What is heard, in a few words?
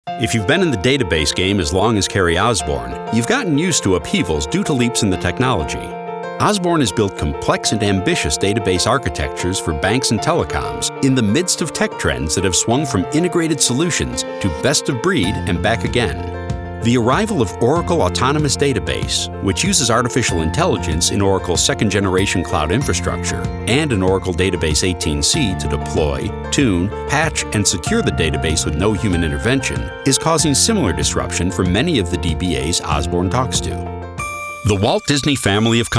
Corporate